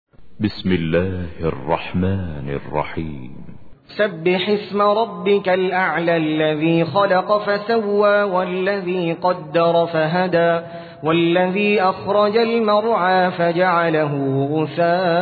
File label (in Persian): ترتیل سوره اعلی